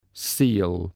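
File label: "seal", English word; animals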